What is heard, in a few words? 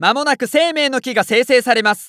Announce Tree Of Life Prep, JPN; Eternal Return